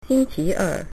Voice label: "tuesday", Mandarin

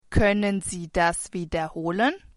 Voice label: say again, german; Können Sie das wiederholen?

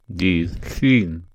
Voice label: Monday, Welsh

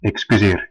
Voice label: sorry, west flemish

